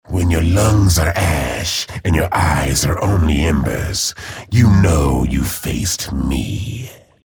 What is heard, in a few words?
chatter neutral